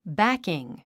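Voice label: "backing", English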